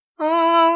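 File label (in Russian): "ми", Russian; bukvar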